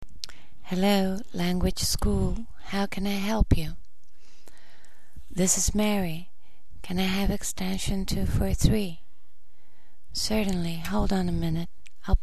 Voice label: phone dialogue